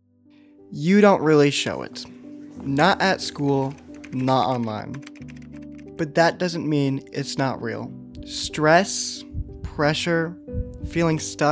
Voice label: RADIO SPOTS Male; mental health conditions